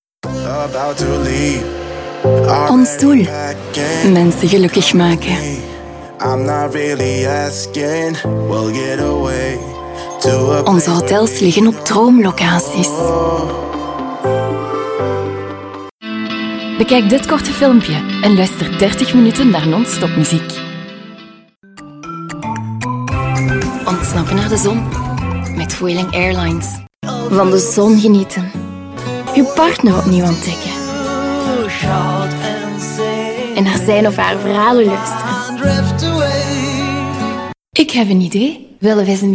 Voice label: Voice DUTCH

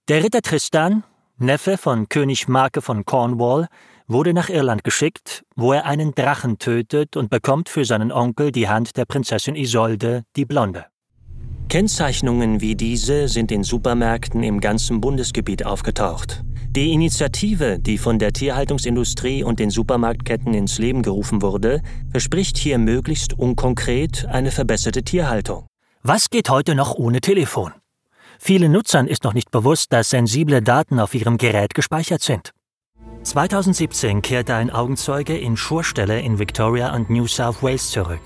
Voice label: DOCU AUDIOGUIDE

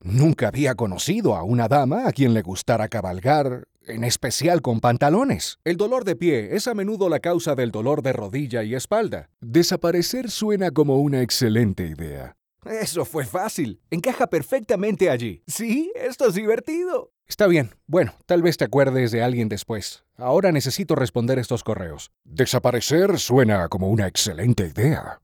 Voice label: CHARACTER ROLES

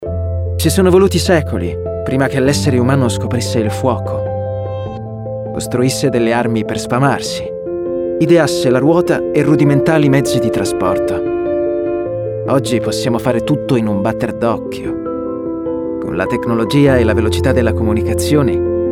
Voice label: Emotional Commercial

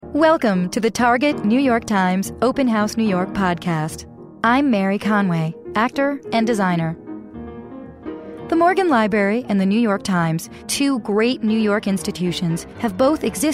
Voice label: Narration Sample

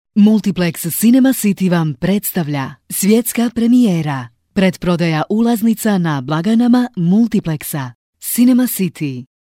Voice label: Croatian VO for TV Commercial; Cinema City

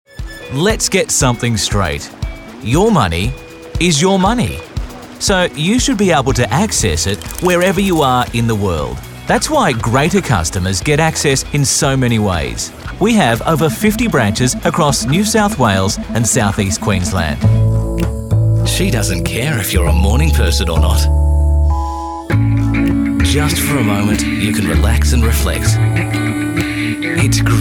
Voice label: Natural Speak

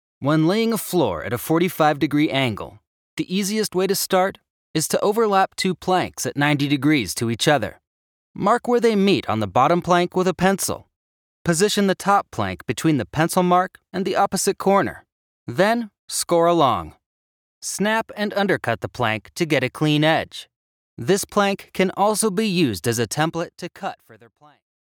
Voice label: Instructional; Karndean

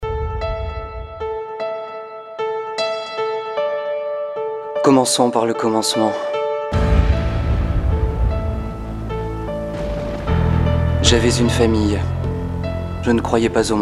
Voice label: MOVIE TRAILER; stakeland

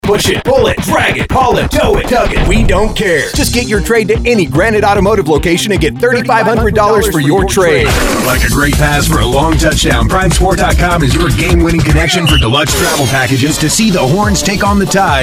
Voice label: Commercial Hard Sell

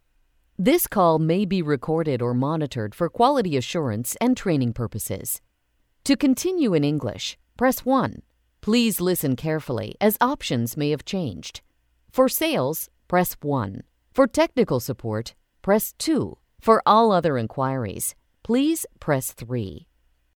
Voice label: IVR

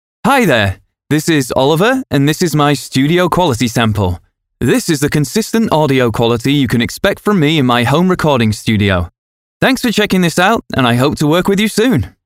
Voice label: Studio Quality Sample

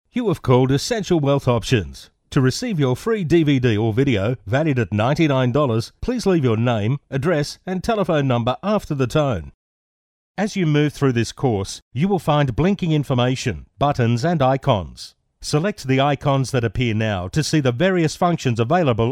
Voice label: VOICE SAMPLES - CORPORATE - NARRATIVE

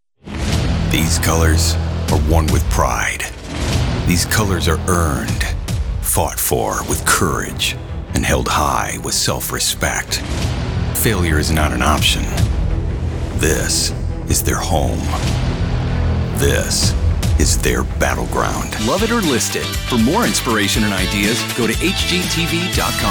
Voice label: Promo

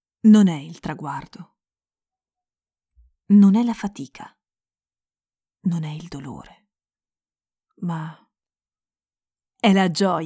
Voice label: spot

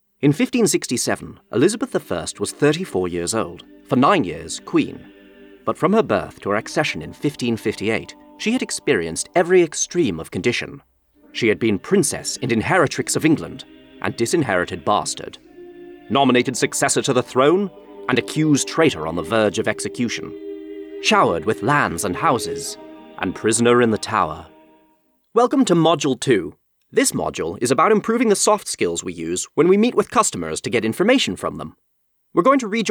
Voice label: Narration and E-Learning

